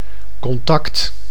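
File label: "contact", Dutch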